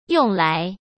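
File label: "用来", Mandarin Chinese; hsk; chinese-flashcards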